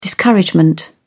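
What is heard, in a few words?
"discouragement", English, British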